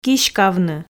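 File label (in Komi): "киськавны", Komi, female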